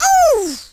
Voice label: "oof", Gangsta; speech